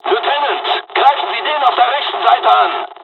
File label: dialog, dialogs german; War Thunder; midway